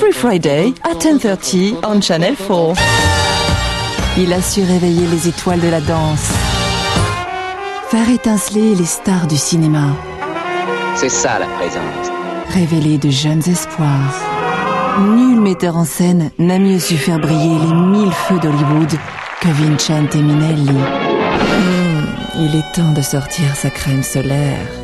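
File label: French, Accented English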